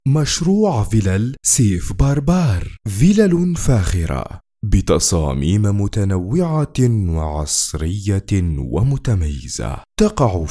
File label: Arabic Voiceover